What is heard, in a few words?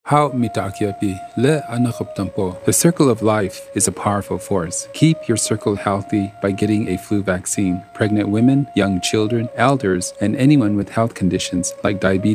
lakota male, radio psa; native and alaskan psas; flu